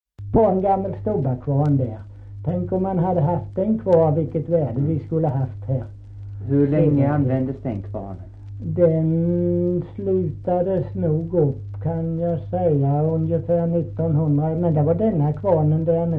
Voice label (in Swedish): Kristianopel BLEK, dialekter; Folkminnen